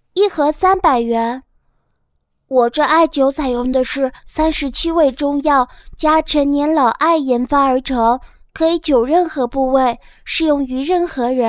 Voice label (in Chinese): 女童 微电影, 国语女声配音; 卖艾灸